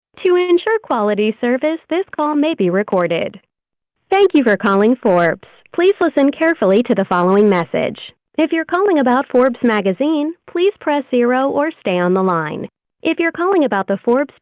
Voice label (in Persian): نمونه متن ivr برای ساعات کاری; موسسه فوربز